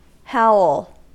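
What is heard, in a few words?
"howl", US English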